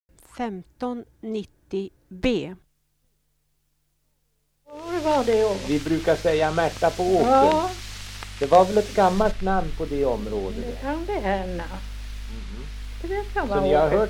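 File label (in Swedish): Alfta Hälsingland, dialekter; Folkminnen; Svenska sagor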